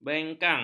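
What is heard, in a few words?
"bengkang", Malay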